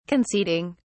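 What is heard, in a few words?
"conceding", British English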